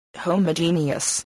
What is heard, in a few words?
"homogeneous", voice spelling